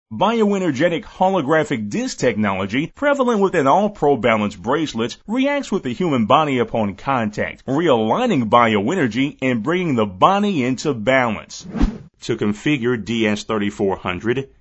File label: eLearning Male; Narration, eLearning